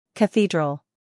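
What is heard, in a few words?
"scandal", American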